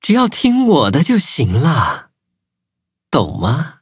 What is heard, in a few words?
loading, zh-hant; cookie run